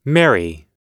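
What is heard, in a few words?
"marry", English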